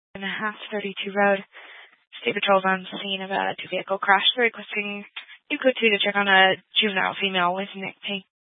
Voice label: Fire Dispatch, scanner